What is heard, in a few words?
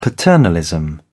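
"paternalism", British English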